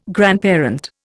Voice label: "grandparent", Indian